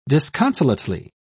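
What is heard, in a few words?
"disconsolately", English, British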